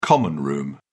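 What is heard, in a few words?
"common-room", English, British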